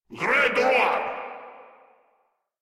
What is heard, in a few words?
Reload, Grineer Male; Warframe